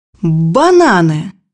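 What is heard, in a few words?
"bananas", Russian; bukvar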